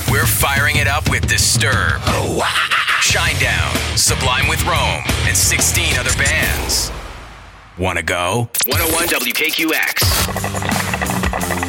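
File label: imaging rock alt, Young & Friendly Canadian Male Voice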